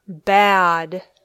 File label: "bad", English